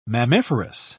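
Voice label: "mammiferous", English, uk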